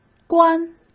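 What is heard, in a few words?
"官", Mandarin Chinese; chinese-flashcards; hsk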